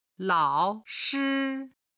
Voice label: "lao3shi1", Chinese